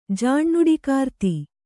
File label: "ಜಾಣ್ಣುಡಿಕಾರ್ತಿ", kannada